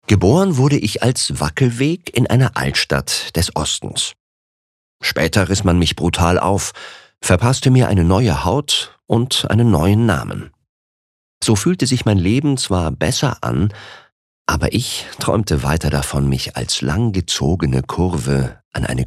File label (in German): Neutral; Wackelweg